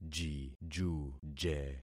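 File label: "jijuje", concatenated syllables; Affixing experiment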